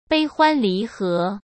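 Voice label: "悲欢离合", Mandarin Chinese; hsk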